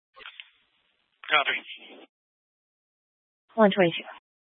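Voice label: Fire Dispatch, scanner audio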